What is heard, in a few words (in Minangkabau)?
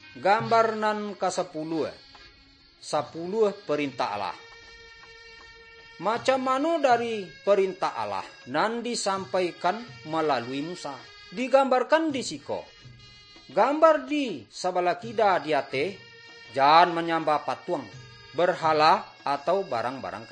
good news minangkabau